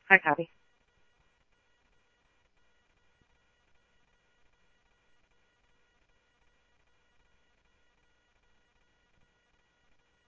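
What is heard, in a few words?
Simulcast police department, scanner audio; Mesa Simulcast; MESA PD Superstn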